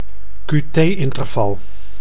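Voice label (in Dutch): "qt-interval", Dutch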